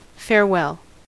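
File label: "farewell", American English; pronunciations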